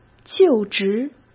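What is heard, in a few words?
"就职", Mandarin Chinese; hsk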